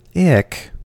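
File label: "ick", US English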